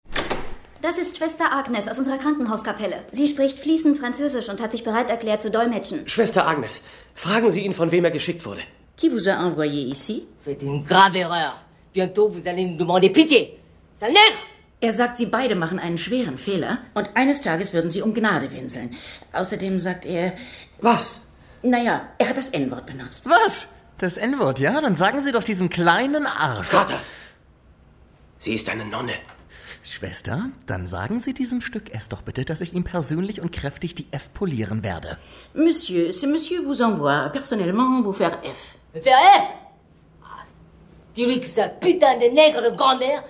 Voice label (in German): Synchron; Rush Hour